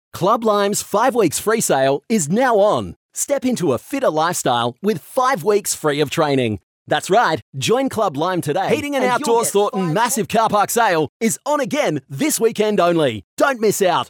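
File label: hard sell, voice-overs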